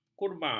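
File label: "kurma", Malay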